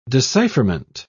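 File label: "decipherment", British English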